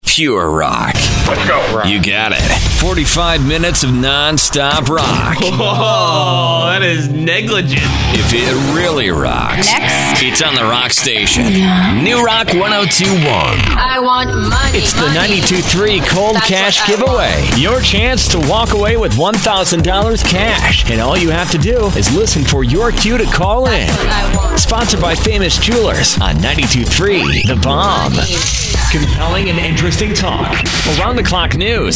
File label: Stage Imaging